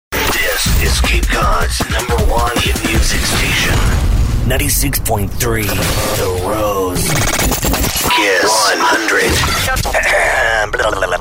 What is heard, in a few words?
Radio Imaging CHR Rock